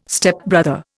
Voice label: "Stepbrother", Indian